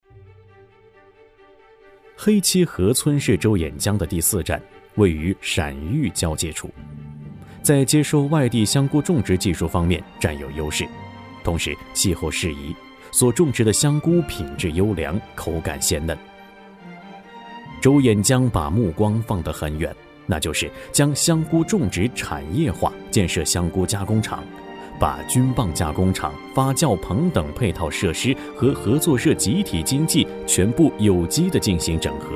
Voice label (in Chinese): 男 电视专题解说